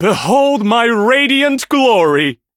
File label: level up, en; cookie run